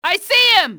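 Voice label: see him, enemy, english, female; XBOX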